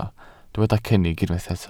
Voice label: "cynnig", welsh